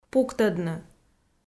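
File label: "пуктӧдны", Komi, female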